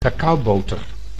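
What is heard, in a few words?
"cacaoboter", Dutch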